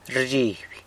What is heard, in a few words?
"rzhiby", San Lucas Quiaviní; gets scared